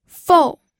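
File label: "fou", Mandarin Chinese, fourth tone; pinyin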